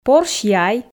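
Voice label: "порсь яй", Komi, female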